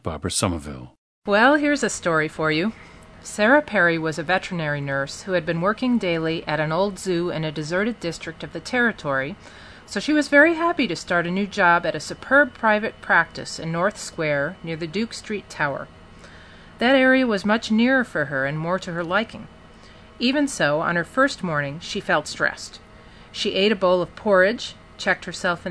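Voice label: wisconsin, dialects of English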